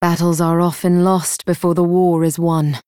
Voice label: Female Night Elf Combat Loss